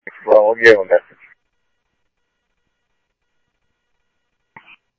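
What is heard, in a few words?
Phoenix police department, scanner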